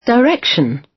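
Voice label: "direction", British English; Space, the planets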